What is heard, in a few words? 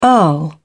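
"earl", British English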